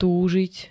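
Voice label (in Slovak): "túžiť", Slovak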